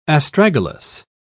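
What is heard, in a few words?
"astragalus", British English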